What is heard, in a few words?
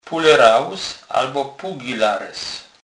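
"puleralus", Polish, Kurpie dialect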